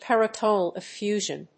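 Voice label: "peritoneal effusion", English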